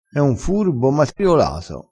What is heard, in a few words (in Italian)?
"matriolato", crespinese